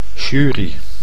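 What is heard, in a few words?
"jury", Dutch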